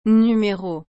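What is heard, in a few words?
"Numero", French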